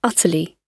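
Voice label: "utterly", British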